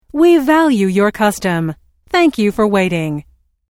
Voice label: Telephone prompt: We value your custom - Thank you for waiting; Pre-recorded telephone on-hold message; Recorded Voice Sound Effects